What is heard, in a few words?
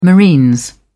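"marines", English, British English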